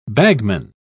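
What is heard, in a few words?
"bagman", British English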